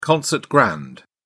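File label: "concert grand", British English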